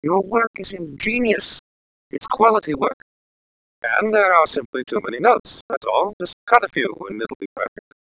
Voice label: good lpc